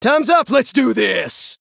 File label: Male Human